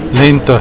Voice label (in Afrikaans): "lente", Afrikaans